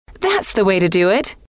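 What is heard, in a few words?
that S The Way To Do It, female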